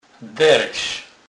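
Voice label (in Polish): "deres", Kurpie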